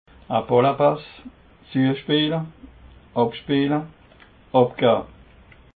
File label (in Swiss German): "ballepasse", alsace